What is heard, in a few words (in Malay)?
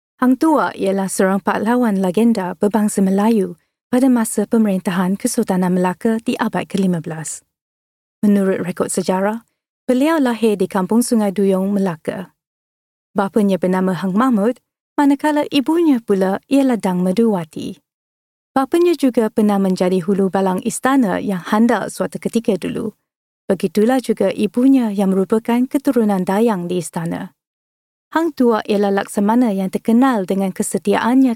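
Malay, Narrative; GoLocalise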